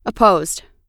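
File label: "opposed", American English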